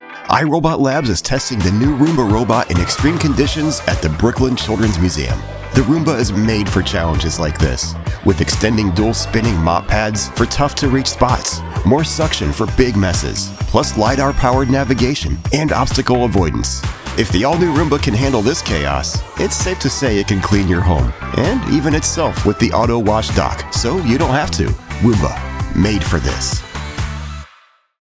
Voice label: Energetic, Fun Roomba Tv Spot; Television Spots

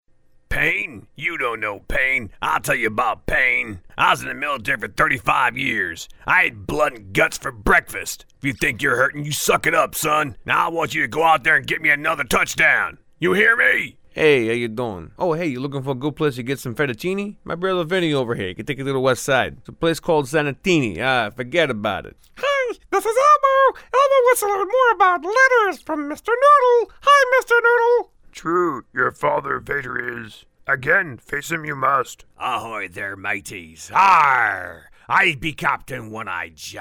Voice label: Character Impressions

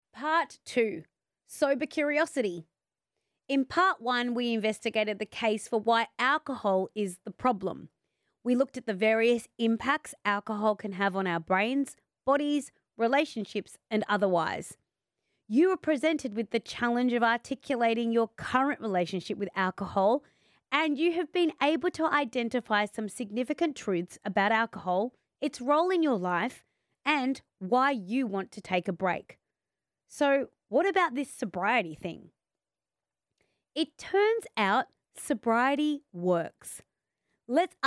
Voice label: Audiobook sample

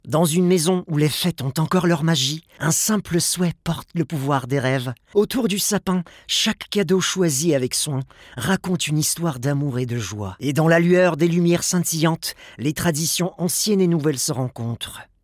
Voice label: FR

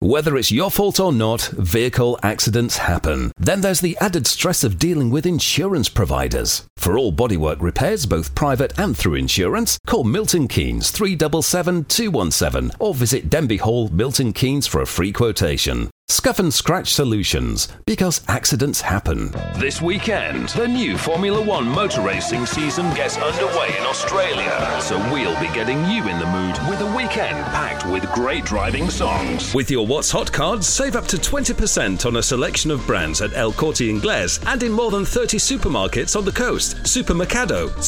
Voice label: friendly radio commercials

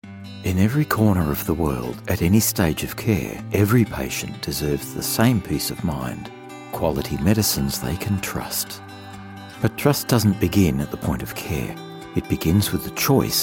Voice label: Corporate, Warm Believable Authentic, male; Trust

